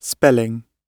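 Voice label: "spelling", British English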